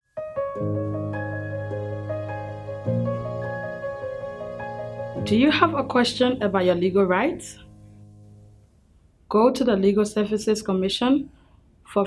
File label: Liberian English